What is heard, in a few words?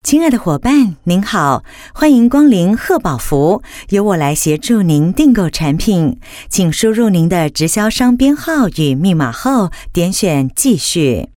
Mandarin Taiwan voice-over female